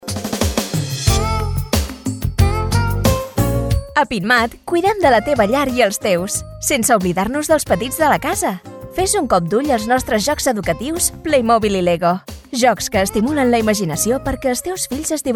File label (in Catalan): JUGUETES EDUCATIVOS CATALAN; pinturas andalucia